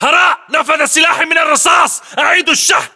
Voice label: inform reloading generic, english battlechatter

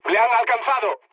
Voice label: aircraft destroyed mood med; War Thunder

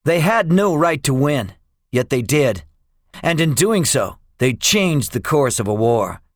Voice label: debriefing, english; midway; War Thunder

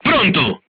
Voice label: charged, crew tanks italian; War Thunder

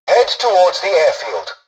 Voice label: tutorial dialogue, english; War Thunder

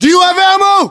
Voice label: status check, us

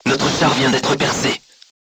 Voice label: voice message player tank hit, crew tanks french

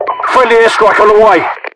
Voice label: friendly air, localized english, UK voiceovers; mpvoice

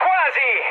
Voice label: bomb failure mood high, speech it; War Thunder

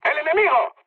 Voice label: enemy detected, mood med, speech; War Thunder

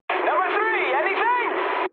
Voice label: eng chat, speech eng

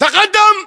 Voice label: move in, english voiceovers

